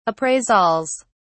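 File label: "appraisals", British English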